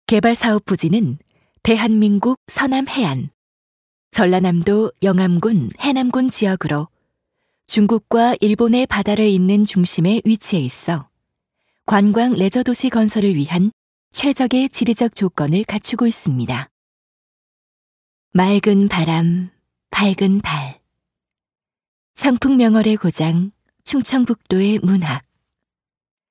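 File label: Korean female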